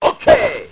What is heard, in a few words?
"okay", expletives